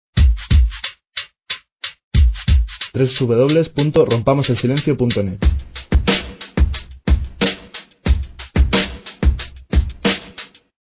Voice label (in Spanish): hombre